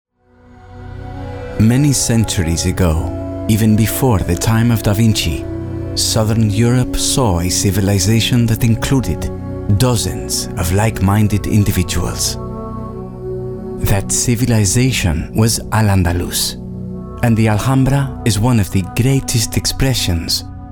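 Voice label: NARRATION GLOBAL ENGLISH, Male Voiceover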